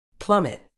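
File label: "plummet", medical English, British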